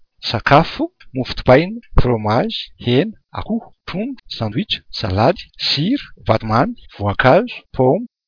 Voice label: malagasy food

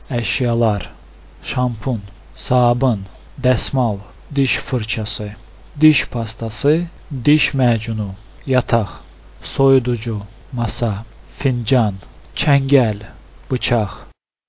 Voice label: azerbaijani

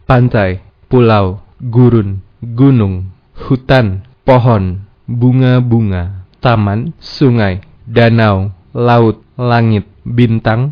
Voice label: indonesian nature

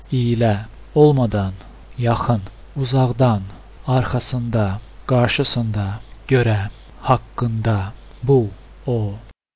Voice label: azerbaijani; words

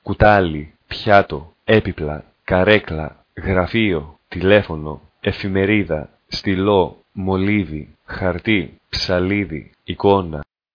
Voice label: greek

